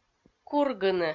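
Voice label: "кургыны", Komi, female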